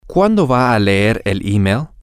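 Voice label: when are you going to read the email, Spanish, male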